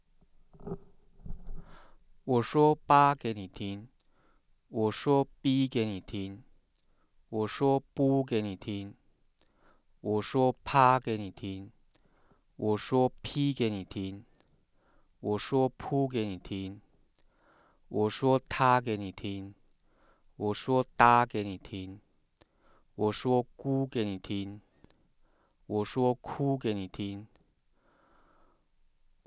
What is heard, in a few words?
mandarin